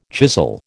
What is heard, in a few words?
"chisel", American